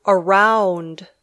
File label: "around", English